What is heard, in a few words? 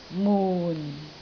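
"mun4", Cantonese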